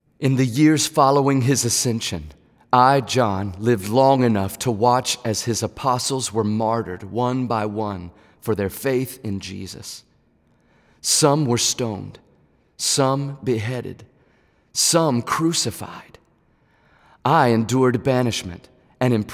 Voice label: Narration; listening cd easter